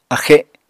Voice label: "axe", Apatani